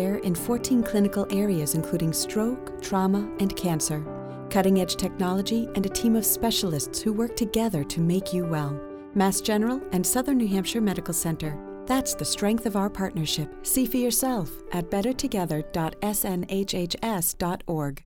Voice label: Hospital Commercial